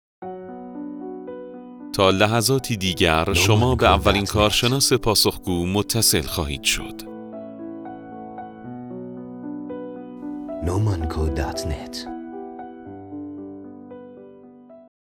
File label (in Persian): پیام زمان انتظار با صدای گوینده مرد